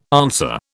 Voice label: "answer", British